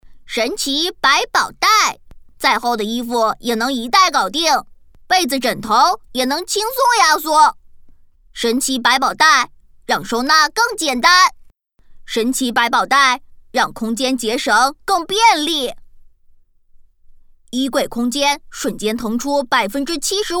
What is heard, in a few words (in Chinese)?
女 动画 模仿; 哆啦A梦